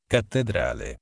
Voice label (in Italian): "cattedrale", Italian